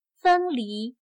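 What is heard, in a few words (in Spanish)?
"分离", chino mandarin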